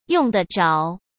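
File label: "用得着", Mandarin Chinese; chinese flashcards; hsk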